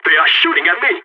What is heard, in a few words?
radio taking fire, balkan